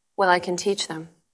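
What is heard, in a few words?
Voice Acting es Female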